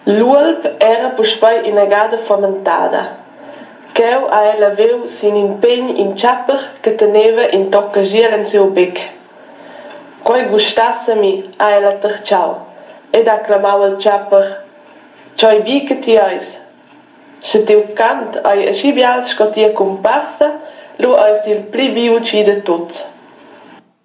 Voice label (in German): Beispiel Sursilvan; Soundproben